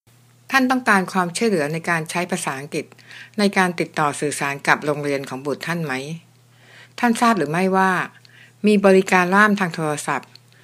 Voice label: thai; public schools; going to a public school